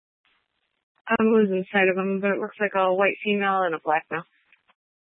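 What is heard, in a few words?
Tempe Police Department, scanner; Rgnl Wireless Co-op (RWC)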